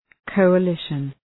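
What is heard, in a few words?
"coalition", English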